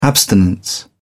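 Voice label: "abstinence", British English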